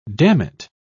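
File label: "dammit", British English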